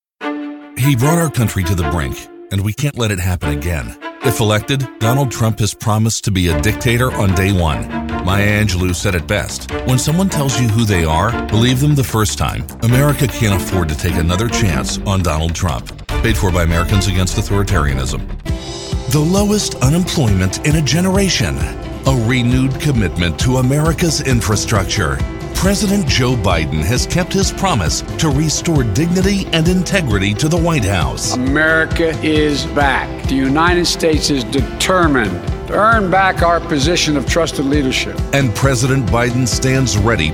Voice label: Political Commercials; Democratic